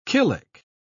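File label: "killick", British English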